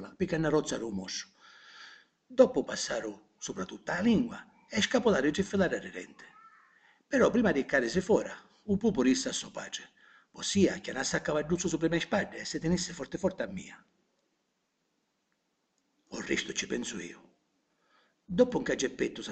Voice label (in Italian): Pinocchio Partinico